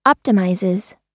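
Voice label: "optimizes", American English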